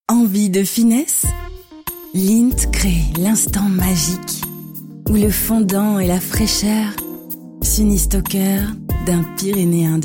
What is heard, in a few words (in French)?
video explicative voix off; demo voix off